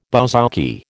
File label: "bouzouki", American